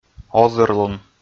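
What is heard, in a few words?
"озырлун", Komi, male; kpv audio dict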